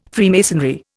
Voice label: "Freemasonry", Indian